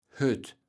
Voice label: "Hött", kaevels platt

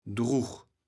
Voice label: "drug", kaevels platt

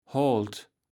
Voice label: "Holt", kaevels platt

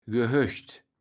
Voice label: "Gehöcht", Platt

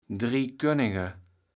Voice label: "Driekoninge", kaevels platt

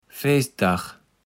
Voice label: "Feestdag", Platt

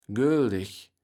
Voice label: "göldeg", kaevels platt

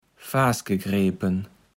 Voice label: "faas gegrepen", kaevels platt

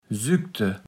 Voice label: "sükte", platt

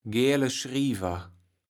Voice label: "Gäle Schriewer", Platt

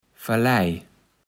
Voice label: "Fallij", kaevels platt

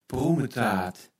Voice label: "Prummetaat", Platt

